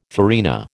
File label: "farina", American